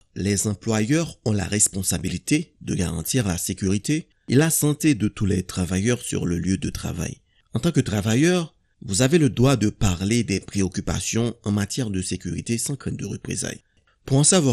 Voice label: workers rights psa french creole; Public Service Announcements